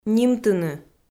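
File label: "нимтыны", Komi-Zyrian, female; kpv audio dict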